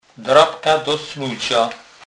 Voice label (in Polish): "drabka do snuca", kurpiow